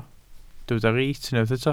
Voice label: "reit", Welsh